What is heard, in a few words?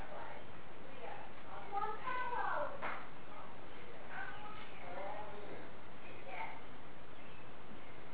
childs voice and cat meows